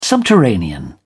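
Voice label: "subterranean", British English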